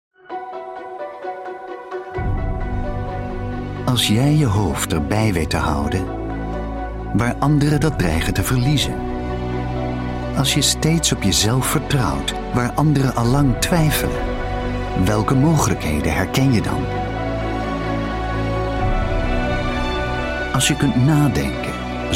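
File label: Dutch Corporate; GoLocalise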